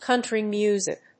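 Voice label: "country music", English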